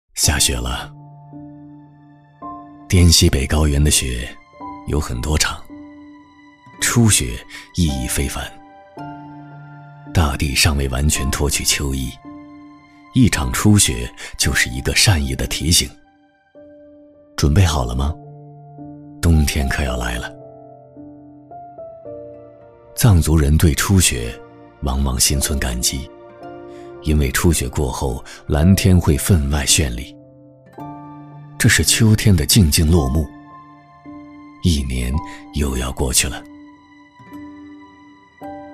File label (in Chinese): 男 纪录片 讲述 自然; 高端品质; 沉稳大气